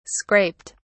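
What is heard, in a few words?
"scraped", British English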